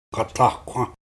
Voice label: Gat Tah Kwa, Dane-ẕaa; Montney